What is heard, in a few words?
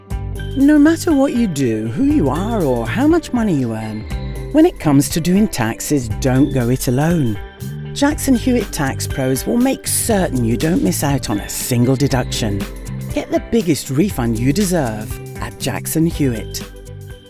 commercial; Jackson Hewitt